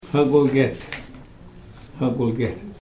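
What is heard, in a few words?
"Hagwilgyet", Ts’msyen; whipped soap berries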